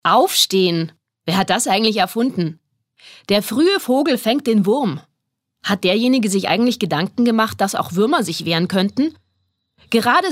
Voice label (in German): Energisch